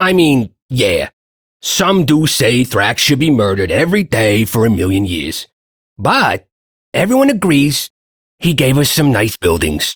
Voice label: Ambient Shopkeeper, Dialog; Duviri

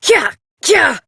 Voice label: Horse Sprint Adult; Duviri

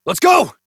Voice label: Horse Sprint Adult; Duviri

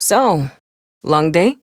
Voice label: Ambient Barks, en; Warframe; Mall Romance; Lettie

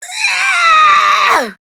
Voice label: Death, Grineer Queens Guards, Battle Barks, en; Warframe

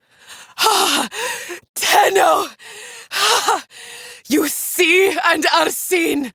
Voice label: Villagers Fem en

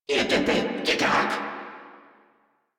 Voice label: Surprised Crewmen, en

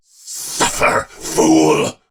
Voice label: Attack, en; Warframe; Battle; Dax; DaxKnight

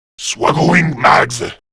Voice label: Reload, Male

